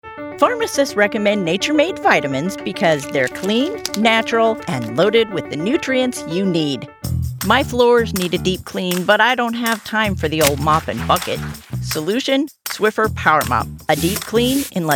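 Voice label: commercial, professional female voice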